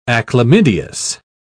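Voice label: "achlamydeous", British English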